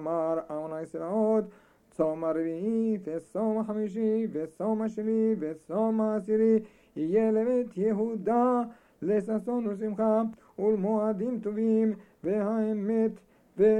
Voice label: Rosh Hashanah Shaharit Announcement of Tsom Gedaliah